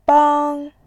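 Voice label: "bang", Mandarin Chinese, tone 1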